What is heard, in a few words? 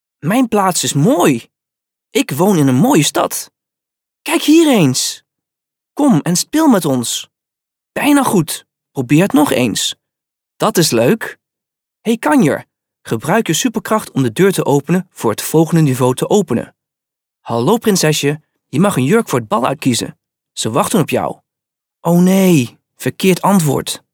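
Dutch male children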